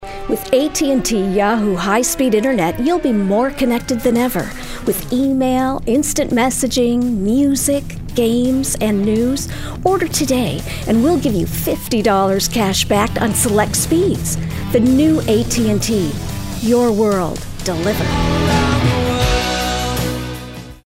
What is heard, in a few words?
Commercial Natural Genuine